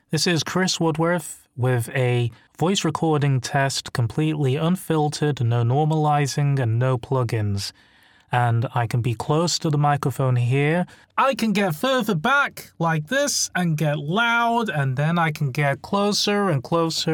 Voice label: RAW Mic Test